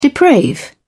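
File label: "deprave", English, British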